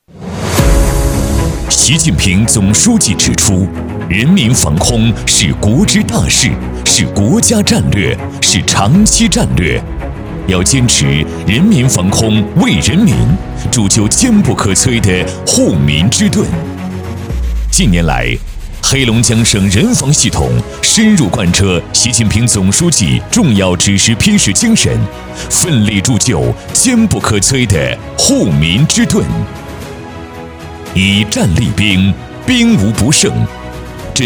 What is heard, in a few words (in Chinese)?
理性舒缓垃圾分类